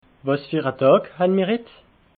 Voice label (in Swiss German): "wasderedag", alsace